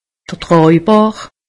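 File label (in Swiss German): "traabakr", Alsatian; medecine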